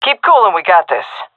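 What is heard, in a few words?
round start, female; Counter Strike Model Pack; CS:GO; seal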